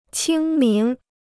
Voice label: "清明", Chinese